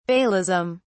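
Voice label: "baalism", British English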